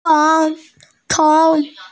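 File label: "chong2", Mandarin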